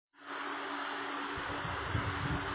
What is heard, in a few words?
Voice Note